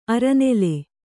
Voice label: "ಅರನೆಲೆ", Kannada